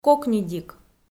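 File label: "кокньыдик", Komi, female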